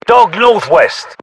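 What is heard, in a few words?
UK threat dog north west, battlechatter, english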